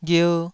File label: "ghier2", Taiwanese syllable